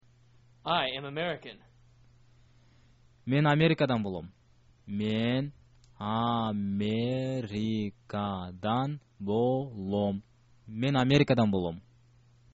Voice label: i am american, kyrgyz

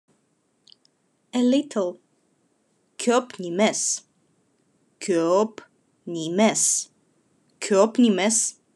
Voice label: Khakas A little

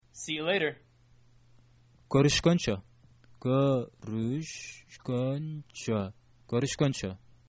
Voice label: see you later, kyrgyz

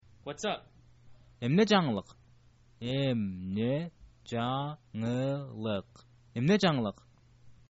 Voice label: whats up, kyrgyz

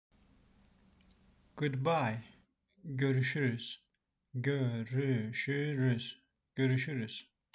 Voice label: good bye, turkish; the talking turkish phrasebook

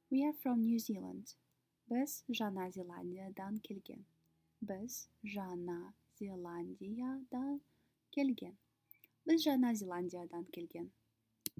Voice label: We are from New Zeland, kazakh

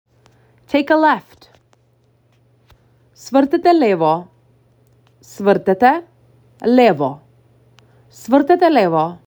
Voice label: Take a left, macedonian; the talking macedonian phrasebook

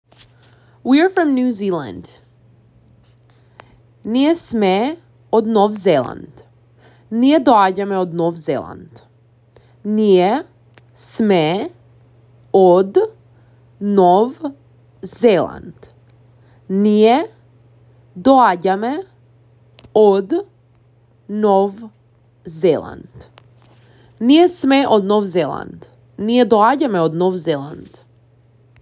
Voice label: We are from New Zealand, Macedonian; the talking macedonian phrasebook

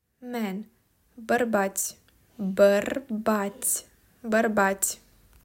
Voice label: "Men", Romanian

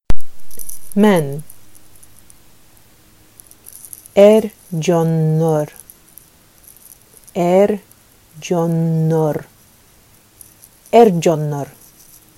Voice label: Sakha Men

